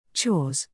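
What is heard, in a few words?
"chores", English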